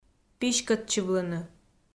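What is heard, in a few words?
"писькӧдчывлыны", Komi, female; audio dict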